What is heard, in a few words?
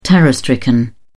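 "terror-stricken", English, British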